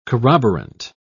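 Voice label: "corroborant", British English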